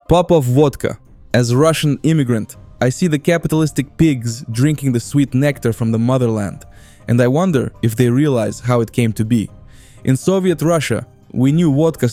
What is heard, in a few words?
w music, Commercials; popov